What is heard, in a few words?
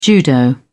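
"judo", British English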